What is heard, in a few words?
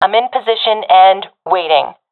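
waiting here, professional female; vo; Counter Strike Model Pack; CS:GO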